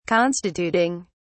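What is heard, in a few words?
"constituting", English, British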